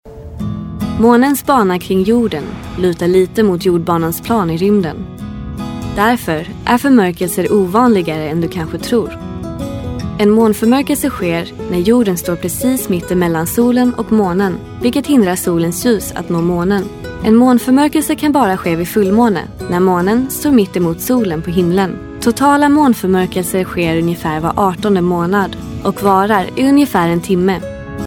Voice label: locutora sueca swedish voiceover